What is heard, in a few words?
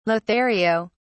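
"lothario", British English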